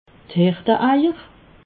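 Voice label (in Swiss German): "herte eier", Alsatian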